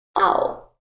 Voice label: Young Lady says Ow; Talking; Voice